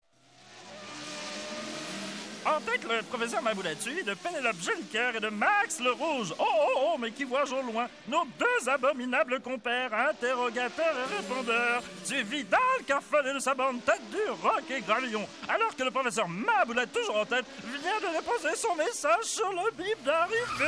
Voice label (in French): Message Drole